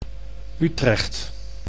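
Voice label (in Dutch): "Utrecht", Dutch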